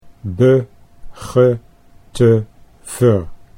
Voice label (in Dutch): be ge te ver prefixes, Dutch